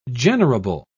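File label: "generable", British English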